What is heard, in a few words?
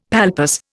"palpus", Indian; Dictionary